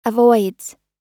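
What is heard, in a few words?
"avoids", American English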